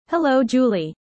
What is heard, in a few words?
user fast